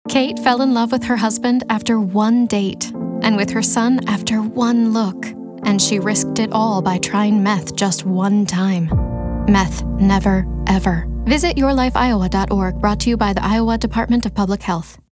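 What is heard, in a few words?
Radio; IDPH; meth never ever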